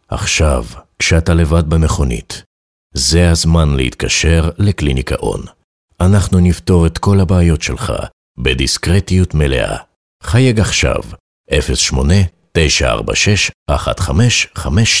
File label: hebrew